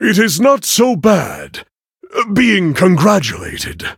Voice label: levelup, en; Cookie Run